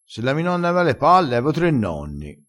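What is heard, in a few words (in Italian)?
"se la mi nonna palle", dialettale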